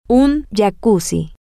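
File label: a Jacuzzi, Spanish, female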